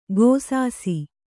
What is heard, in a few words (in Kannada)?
"ಘೈಸಾಸ", Kannada